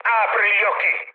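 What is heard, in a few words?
Italian player friendly fire mood med, speech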